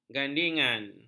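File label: "gandingan", Malay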